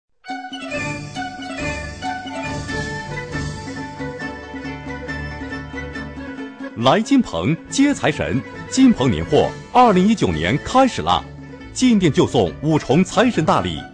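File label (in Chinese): 男促销; 金鹏电动车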